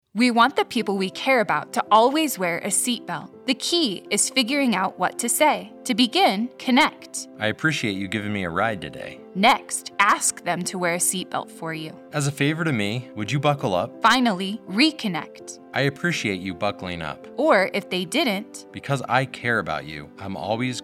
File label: Radio ad